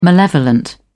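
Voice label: "malevolent", English, uk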